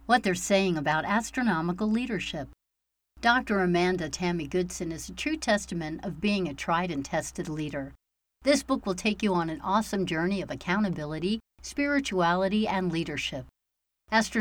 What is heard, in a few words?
Audiobook